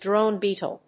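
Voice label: "drone beetle", English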